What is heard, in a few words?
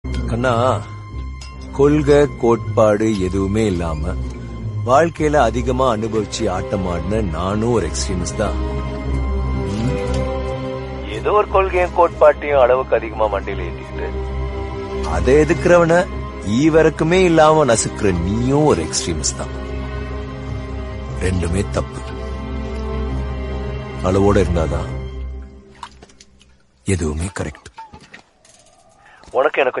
dialogue tamil; mahaan; vikram